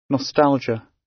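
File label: "nostalgia" British English; Time, history; Thời gian, lịch sử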